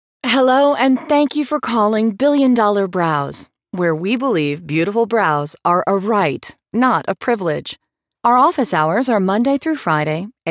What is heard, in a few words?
phone tree